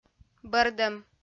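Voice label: "бӧрдӧм", Komi, female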